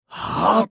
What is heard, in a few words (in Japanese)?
化物人ボイス, 攻撃（中）1; 戦闘ボイス; 化物人ボイス～戦闘ボイス～; 戦闘系